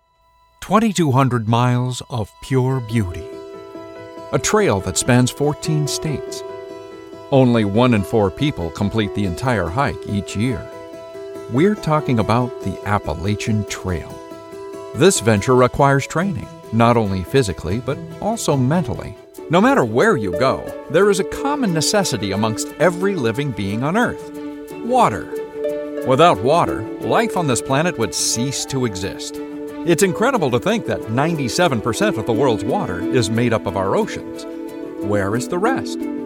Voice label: Documentary

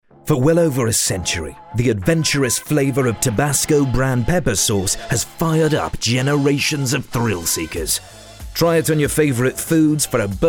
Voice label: Commercial UK

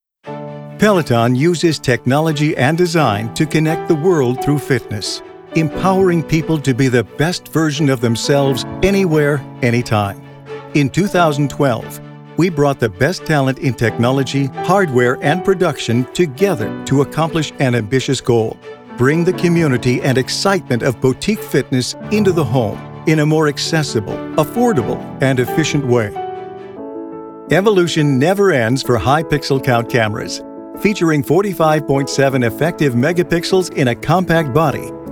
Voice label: Corporate

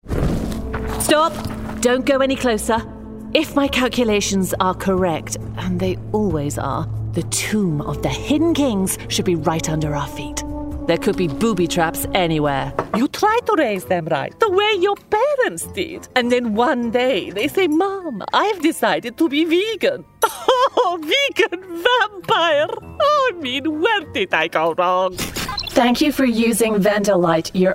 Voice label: animation

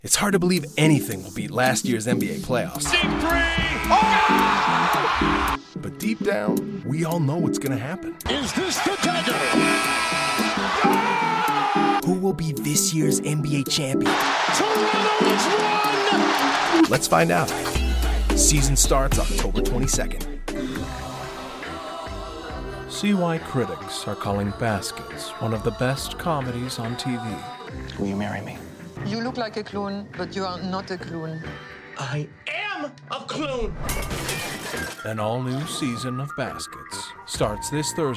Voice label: Promo

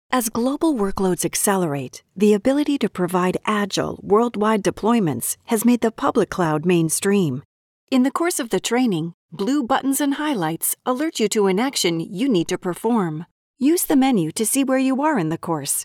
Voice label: e-learning

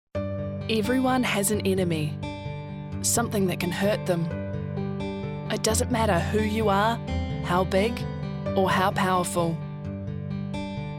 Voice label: NZ Charity

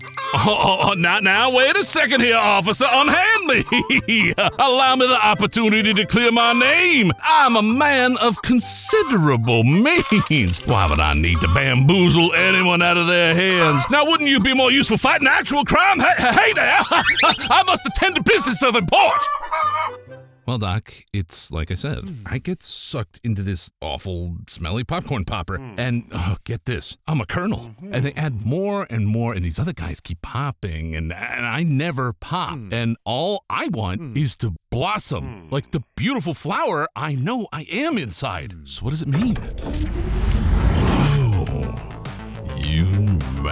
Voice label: Animation

